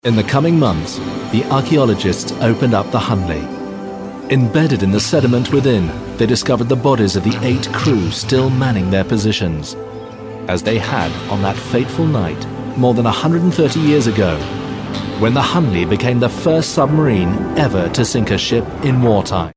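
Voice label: British documentary war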